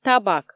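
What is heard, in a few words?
"табак", Komi, female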